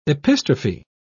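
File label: "epistrophe", British English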